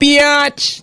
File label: "bitch", expletives